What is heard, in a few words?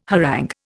"harangue", Indian